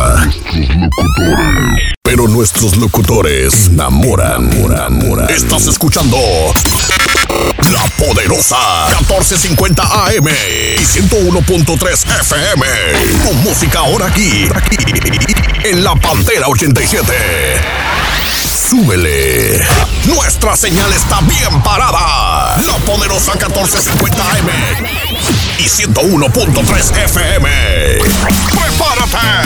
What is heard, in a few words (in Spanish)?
imagen, voces